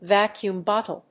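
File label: "vacuum bottle", English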